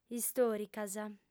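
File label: "istòricas", female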